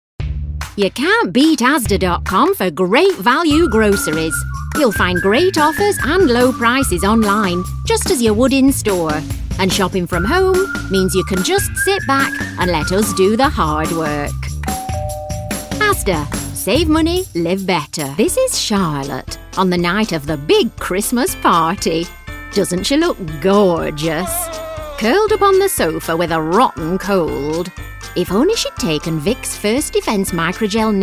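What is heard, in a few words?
British English, Commercial, Northern; GoLocalise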